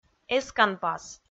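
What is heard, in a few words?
"эсканпас", Komi, female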